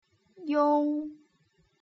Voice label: "iong", putonghua